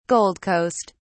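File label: "gold coast", British English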